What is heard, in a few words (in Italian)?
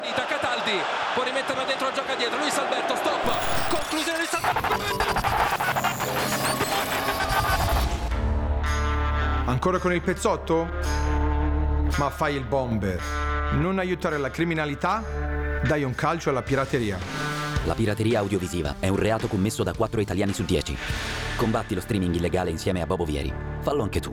radio mix; pirateria